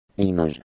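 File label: "Limoges", French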